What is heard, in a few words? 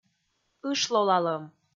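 "ышлолалӧм", Komi, female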